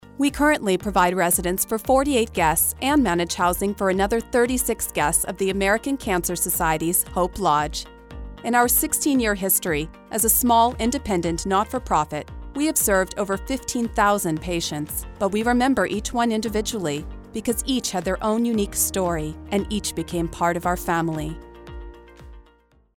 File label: E-Learning Non-Profit; Voiceover Demos